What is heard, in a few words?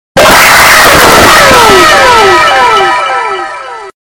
anime wow sound effect